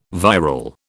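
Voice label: "viral", British English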